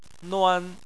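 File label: "nuan" first tone, Chinese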